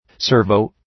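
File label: "servo" English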